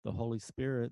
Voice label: reverse speech